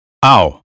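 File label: "mouth", American English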